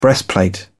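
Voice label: "breastplate", British English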